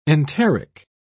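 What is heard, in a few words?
"enteric", British English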